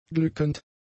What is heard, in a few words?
"glückend", German; adjectives